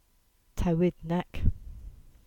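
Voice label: "Towednack", Cornish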